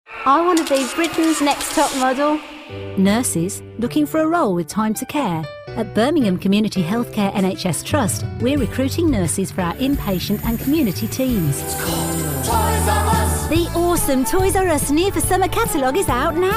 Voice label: midlands